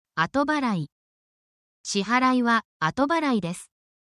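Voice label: Japanese word, essential Japanese phrases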